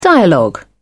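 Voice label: "dialogue", British English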